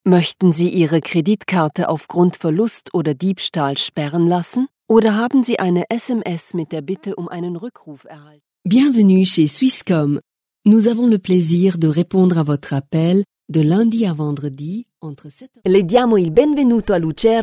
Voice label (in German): Telefonansage DE FR IT EN